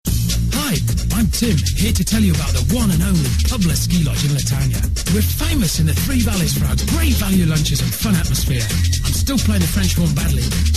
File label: Ad